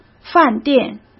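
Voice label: "饭店", Mandarin Chinese; chinese flashcards; hsk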